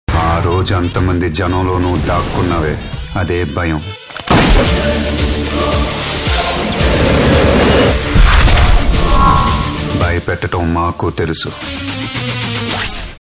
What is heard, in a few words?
Dialogue Telugu; SPYDER; Mahesh